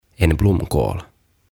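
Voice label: "En Blomkål", Swedish, "Kukkakaali", Finnish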